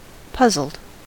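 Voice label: "puzzled", US English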